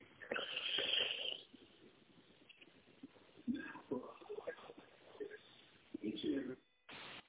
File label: voicemail